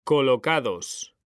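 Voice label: "COLOCADOS", Spanish; mekanta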